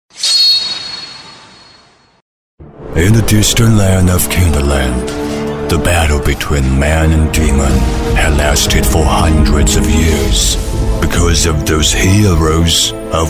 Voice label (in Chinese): 男英 旁白模仿指环王; 外语; 英语; 君王3旁白模仿指环王